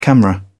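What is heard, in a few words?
"camera", British English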